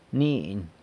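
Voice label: "mniny", child, San Lucas Quiaviní Zapotec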